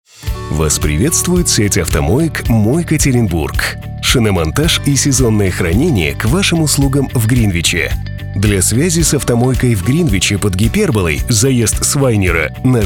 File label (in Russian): Автоответчик; Мойкатеринбург